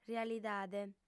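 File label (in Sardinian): "realidade", female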